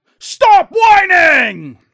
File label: Dwarf Enroute Cocky